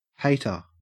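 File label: "hater", Australian English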